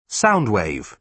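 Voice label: "sound wave", British English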